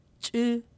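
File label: "chi", syllable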